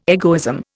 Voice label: "egoism", Indian